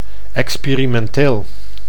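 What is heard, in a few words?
"expirimenteel", Dutch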